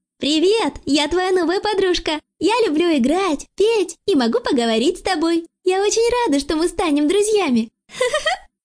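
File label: Russian Female Voice Over Imitation Child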